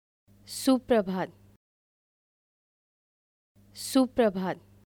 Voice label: Good morning Hindi

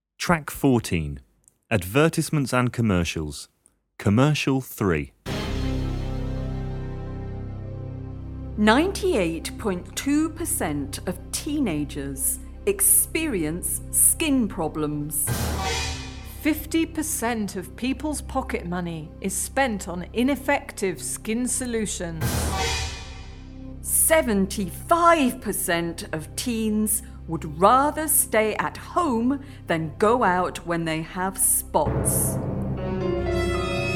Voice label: radio ad

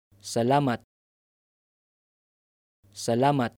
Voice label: "Thank you", Tagalog

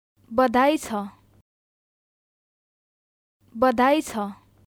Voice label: Congratulations Nepali

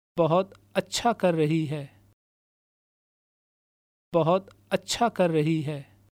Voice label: (Name) is doing well, urdu, female

